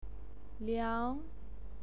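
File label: "liang", pinyin, second tone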